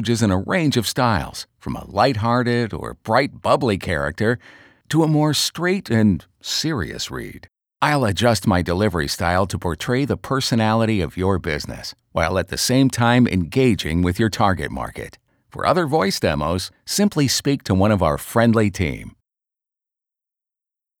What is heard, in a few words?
MALE; Voice Over artists; Smart On Hold Messages